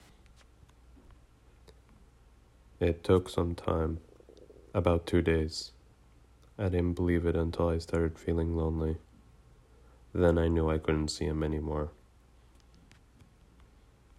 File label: testimonial